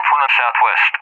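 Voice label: "from the SW", English, UK; DCS; Allied Flight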